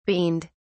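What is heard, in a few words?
"beaned", English, British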